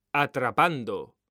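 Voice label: "ATRAPANDO", Spanish, male; mekanta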